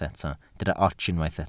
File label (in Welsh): "ots", Welsh